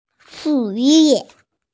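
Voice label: "shu4 ye4", Mandarin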